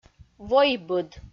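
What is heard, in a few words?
"войбыд", Komi, female; audio dict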